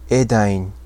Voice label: "Edain", Sindarin